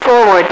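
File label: "forward", female radio voice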